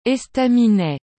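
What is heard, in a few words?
"estaminet", French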